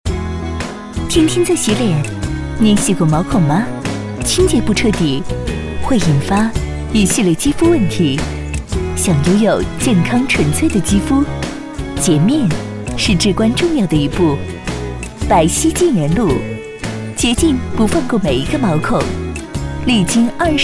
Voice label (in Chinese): 广告 化妆品 洁面 时尚